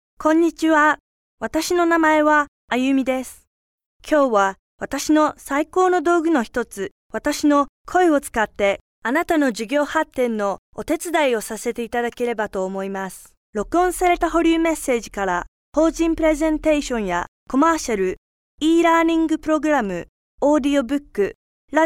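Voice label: Japanese General; GoLocalise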